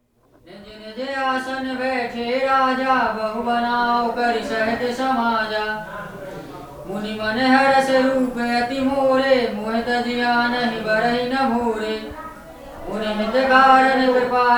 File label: Prayer Reading at Birthplace of Hanuman on Anjanadri Hill Kishkindha, Religious Music, India; Samuel Corwin; Selected Field Recordings from India and Nepal Volume II Religious Music